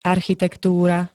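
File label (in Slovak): "architektúra", Slovak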